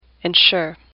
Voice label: "ensure", English; AWL

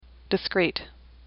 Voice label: "discrete", English; AWL

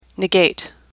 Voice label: "negate", English; AWL

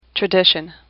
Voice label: "tradition", English; AWL

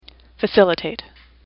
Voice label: "facilitate", English; AWL

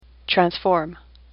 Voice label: "transform", English; AWL

